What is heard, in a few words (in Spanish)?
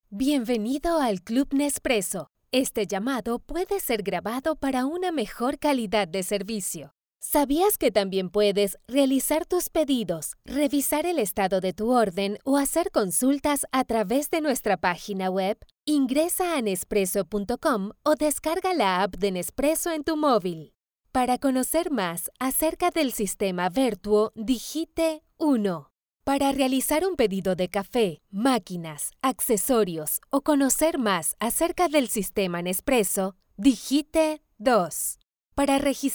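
corporativo, Venezuela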